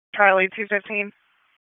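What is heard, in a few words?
Police Northeast District Dispatch, p25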